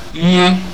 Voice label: die, Igbo